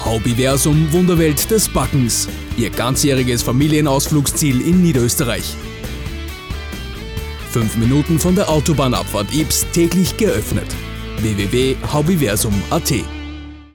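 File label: German Austrian commercial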